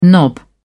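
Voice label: "knob", English, British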